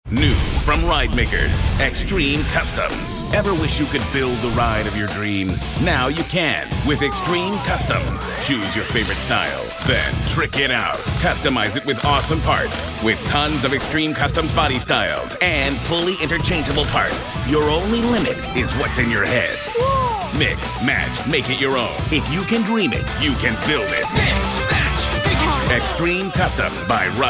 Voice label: Edgy